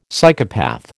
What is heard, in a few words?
"Psychopath", American